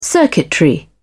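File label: "circuitry", British English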